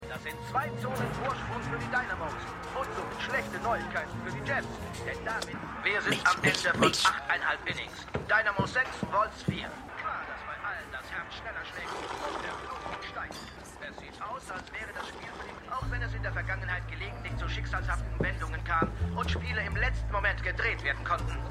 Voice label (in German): Spielkommentator; HELLO TOMORROW!